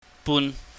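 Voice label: "bun", Cantonese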